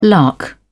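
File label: "lark", British English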